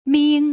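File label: "ming", Putonghua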